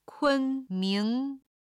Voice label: "kun1ming2", Chinese